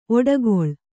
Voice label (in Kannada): "ಒಡಕಲು", Kannada